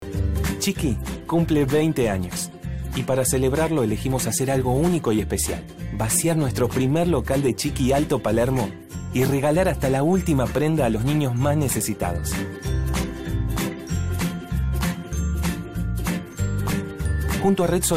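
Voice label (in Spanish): latam male commercial; voice demos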